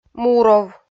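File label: "муров", Komi, female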